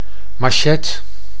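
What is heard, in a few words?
"machette", Dutch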